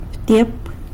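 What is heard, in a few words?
"btyep" wasp, San Lucas Quiaviní Zapotec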